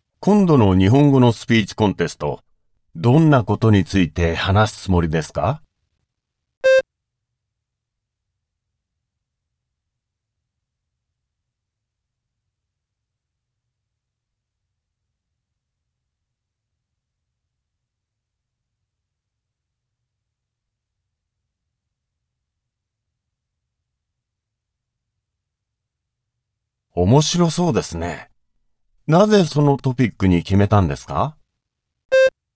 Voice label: japanese conversation; ap japanese language and culture; speaking prompts 2026